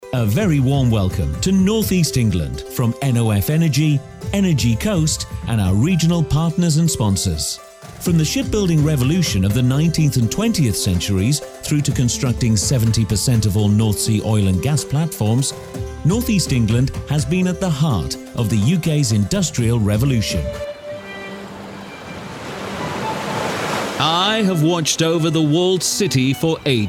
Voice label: male english rp accent corporate; english northern accents